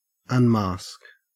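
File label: "unmask", Australian English; pronunciations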